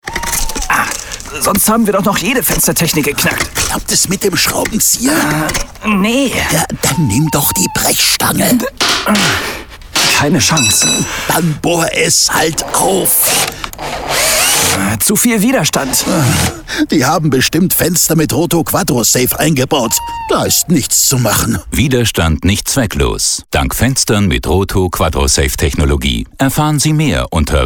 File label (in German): Radiospot; Roto Quadro Safe